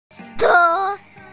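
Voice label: "te4", Mandarin Chinese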